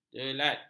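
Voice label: "jelat", Malay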